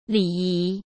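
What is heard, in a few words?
"礼仪", Mandarin Chinese; hsk; chinese-flashcards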